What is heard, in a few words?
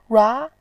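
"rua", Mandarin Chinese, second tone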